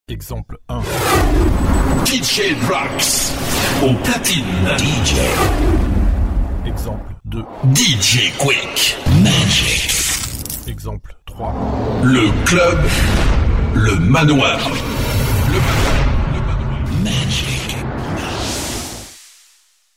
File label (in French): Jingles Perso Voix sur FX et Jingles